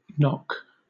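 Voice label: "nock", British English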